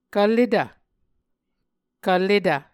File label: "kvletv", Muscogee